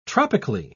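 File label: "tropically", British English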